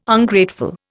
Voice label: "Ungrateful", Indian